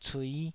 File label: "cui5", Taiwanese syllable